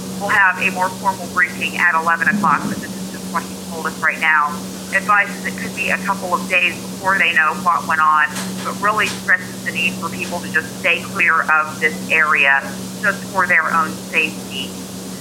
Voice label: Grain Elevator Explosion Live Report